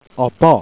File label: "abas", jerriais